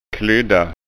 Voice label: "klydda", skånska ord

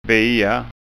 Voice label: "bia", skanska ord

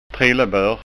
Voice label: "trillebor", skanska

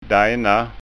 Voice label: "dajna", skanska

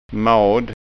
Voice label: mad

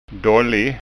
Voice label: "dallig", skanska

